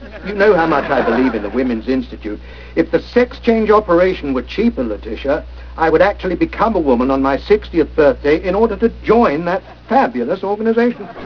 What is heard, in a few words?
woman